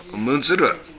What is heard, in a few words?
"muzuru", minamiyamagata